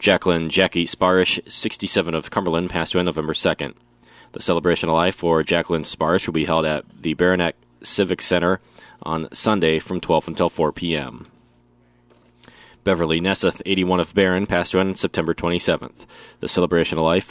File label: Funeral Announcements